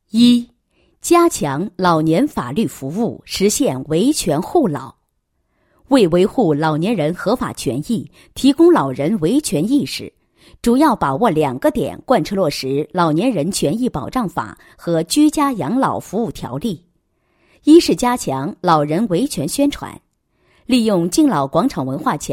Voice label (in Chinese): 女 宣传片 解说稿; 养老服务宣传片解说稿